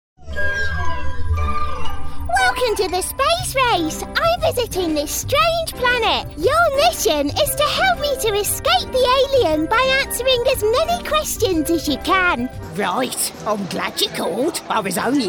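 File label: English, UK, female, voices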